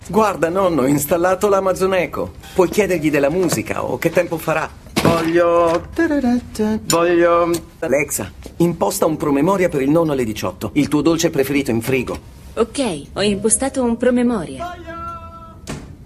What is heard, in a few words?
male italian promo; 2019 amazon alexa